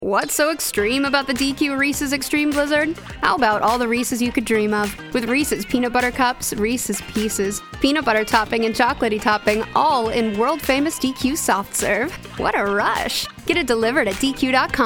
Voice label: commercial